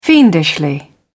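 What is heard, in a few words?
"fiendishly", English, British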